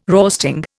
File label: "roasting", Indian